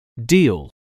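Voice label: "deal", English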